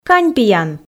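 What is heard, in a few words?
"каньпиян", Komi, female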